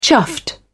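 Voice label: "chuffed", British English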